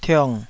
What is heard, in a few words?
"tiong3", taiwanese Syllables